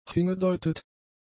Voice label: "hingedeutet", German; adjectives

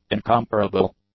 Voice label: "incomparable", English; adjectives

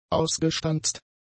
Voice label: "ausgestanzt", German; adjectives

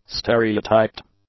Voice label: "stereotyped", English; adjectives

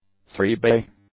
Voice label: "three-bay", English; adjectives

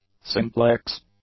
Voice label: "simplex", English; adjectives

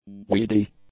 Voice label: "weedy", English; adjectives

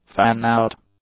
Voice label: "fan-out", English; nouns

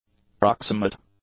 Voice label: "proximate", english; adjectives

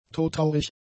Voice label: "todtraurig", German; adjectives

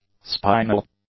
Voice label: "spinal", english; adjectives

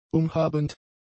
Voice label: "umhabend", German; adjectives

